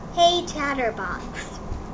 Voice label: hey chatterbox, en-us